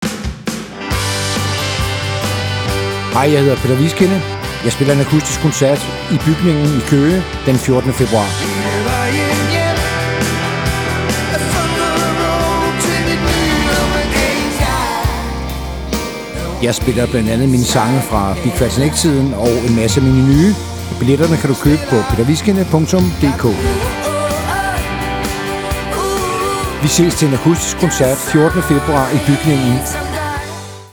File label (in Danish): spot; Viskinde; Bygningen Køge